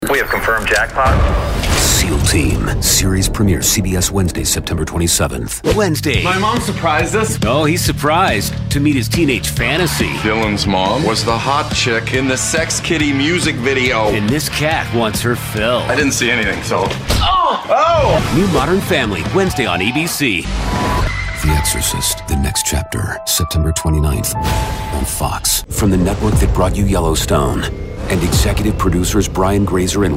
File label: Promo